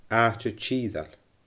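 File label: "aaite tiiorail", Scottish Gaelic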